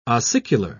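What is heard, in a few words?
"ossicular", British English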